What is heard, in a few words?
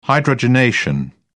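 "hydrogenation", British English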